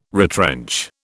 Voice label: "retrench", British

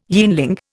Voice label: "yeanling", Indian

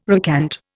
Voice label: "recant", Indian

